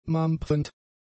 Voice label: "mampfend", German; adjectives